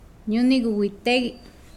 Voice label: Nawat palabras golpear; pipil

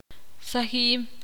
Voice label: ver, ixil

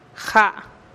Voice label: "ja" nueve, teotitlan

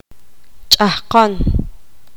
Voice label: poqomam algunos

